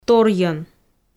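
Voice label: "торйӧн", Komi, female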